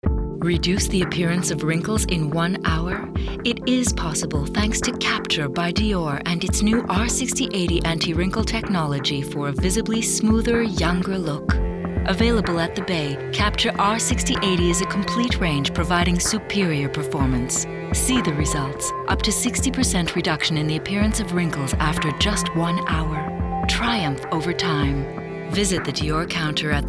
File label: commercial; danette